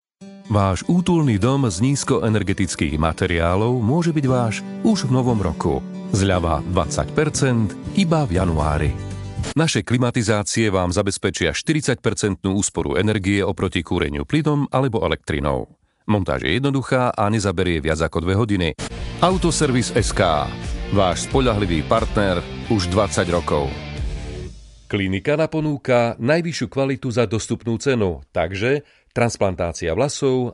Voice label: Slovakia male; lektoring

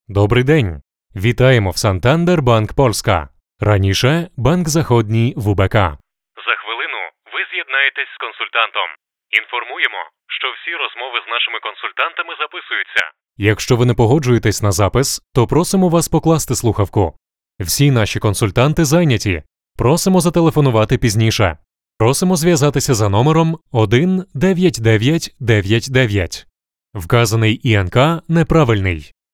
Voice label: ukr; santander

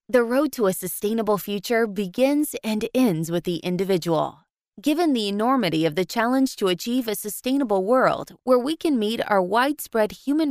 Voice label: US female; lektoring

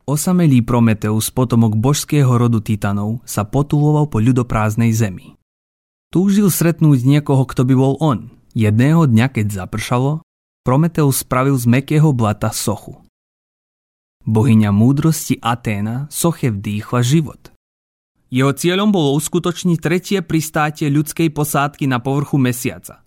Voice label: slovakia male; lektoring

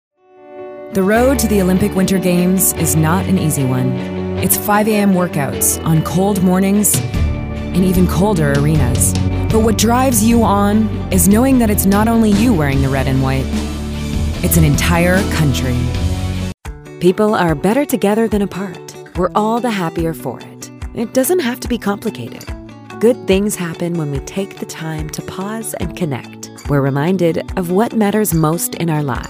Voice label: female us; lektoring

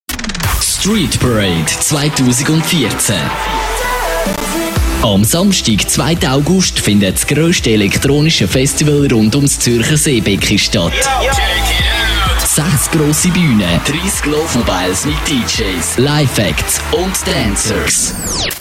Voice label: Swiss, male; lektoring

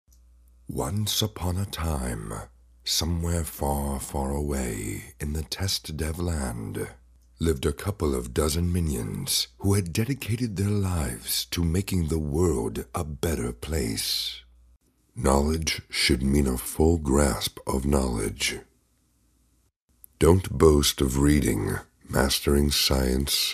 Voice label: male US

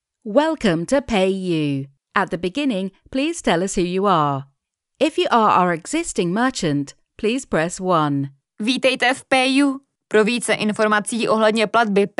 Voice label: ivr; payu

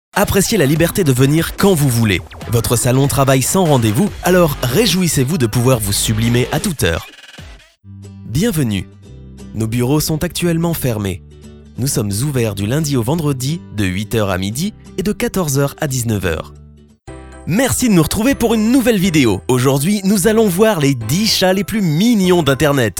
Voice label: fra male lektoring

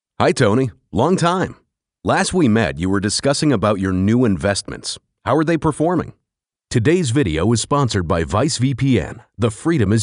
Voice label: US male; lektoring

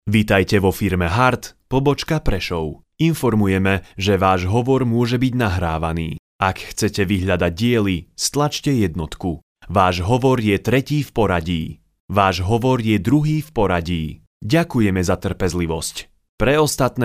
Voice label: Slovakia male; lektoring

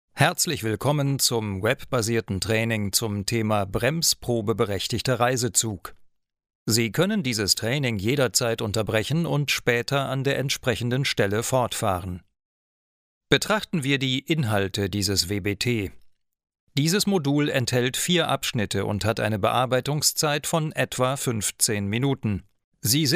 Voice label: de male; lektoring

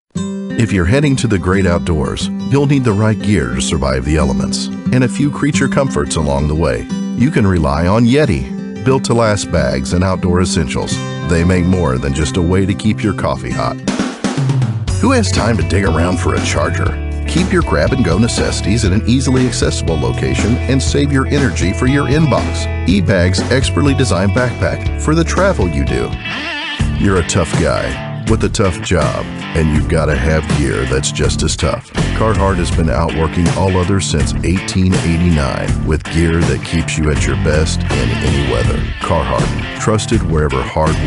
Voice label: male us; lektoring

